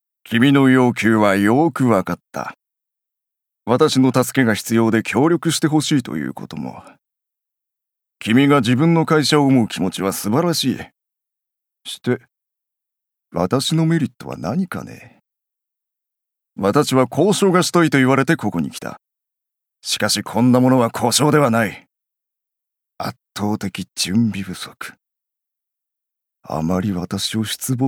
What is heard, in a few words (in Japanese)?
ビジネスマン